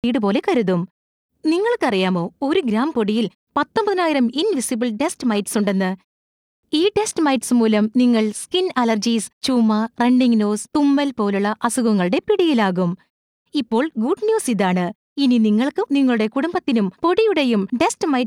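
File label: promo malayalam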